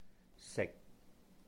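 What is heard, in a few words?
"sihk", Cantonese; eat; 食; sik6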